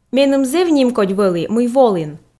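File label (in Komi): меным зэв нимкодь вӧлі мый волін, Komi, female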